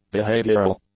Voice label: "behavioral", English; adjectives